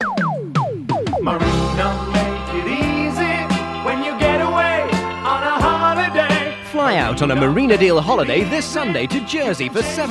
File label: Radio Advert, Holidays; Radio Hallam; Marina